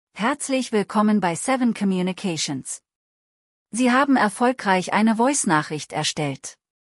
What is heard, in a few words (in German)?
ssml example startnachricht de